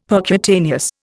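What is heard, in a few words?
"percutaneous", Indian English; Dictionary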